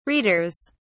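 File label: "readers", British English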